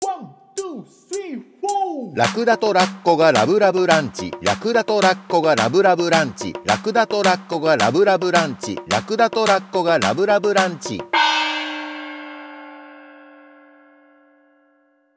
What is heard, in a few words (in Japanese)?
早口言葉リズム（中級編）